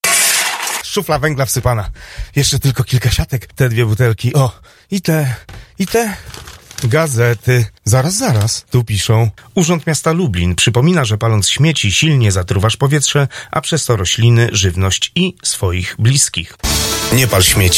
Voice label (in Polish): spot radiowy; nie truj powietrza; powietrze w miescie